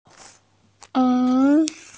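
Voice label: "ao3", female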